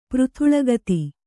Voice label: "ಪೃಥುಳಗತಿ", kannada